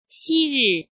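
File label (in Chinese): "昔日", Mandarin Chinese; chinese-flashcards; hsk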